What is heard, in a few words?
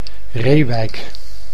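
"Reeuwijk", Dutch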